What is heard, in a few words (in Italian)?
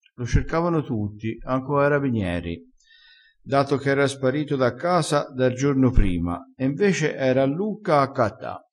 "accatta", Crespinese